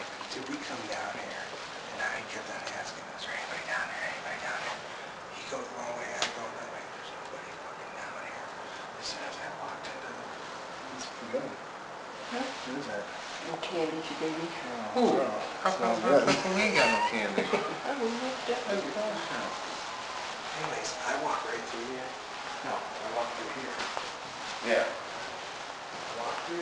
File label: kids voice hello twice